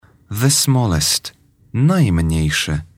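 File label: "smallest", English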